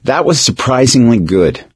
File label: nice job, gambler, survivor voice; source engine; left 4 dead 2